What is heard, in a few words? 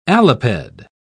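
"aliped", British English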